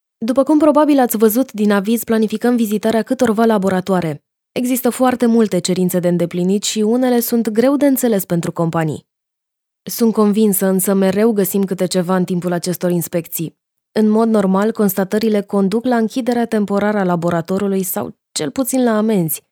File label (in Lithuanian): (MOT) Rumunu balsas, moteris